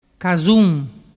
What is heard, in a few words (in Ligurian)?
"casun", dialettu